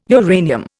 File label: "uranium", Indian